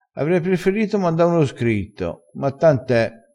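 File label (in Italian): "tant e", dialetto crespinese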